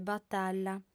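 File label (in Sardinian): "batalla", female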